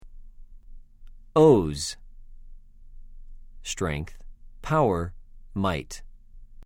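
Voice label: "עֹז", Hebrew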